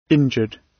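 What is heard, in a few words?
"injured", British English; Time, history; Thời gian, lịch sử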